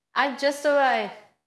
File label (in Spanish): Voice Acting es F0